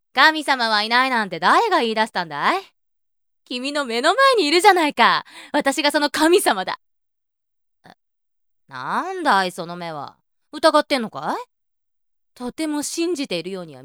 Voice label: woman